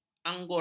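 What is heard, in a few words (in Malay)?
"angora", Malay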